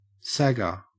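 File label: "sagger", Australian English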